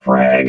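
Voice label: "frag", robot voice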